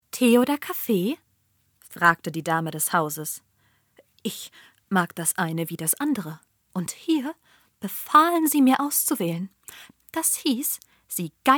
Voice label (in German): Hörbuch